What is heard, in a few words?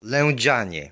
"Lędzianie", Polish